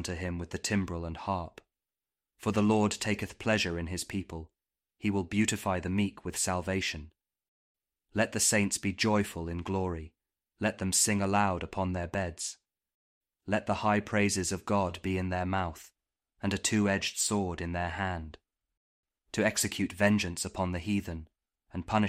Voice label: psalm kjv king james audio bible